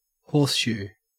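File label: "horseshoe", Australian English; pronunciations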